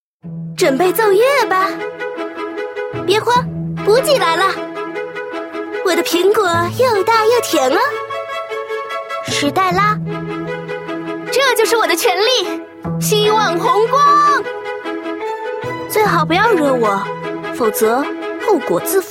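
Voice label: girl, female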